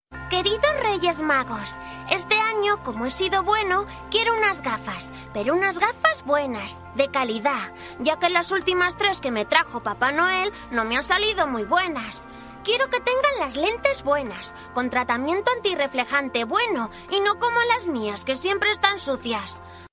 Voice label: Spanish Spain